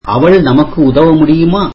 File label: tamil can she help us